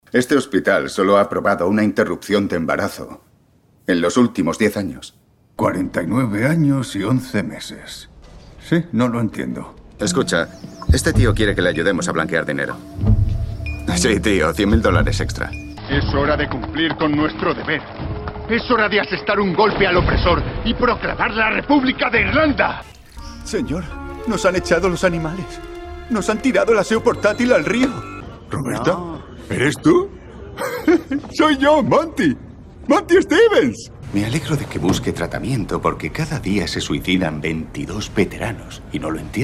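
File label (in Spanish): spanish movie trailers, Español voice actor, Una voz grave; requiera transmitir seguridad; Trailers de películas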